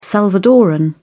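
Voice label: "salvadorean", British English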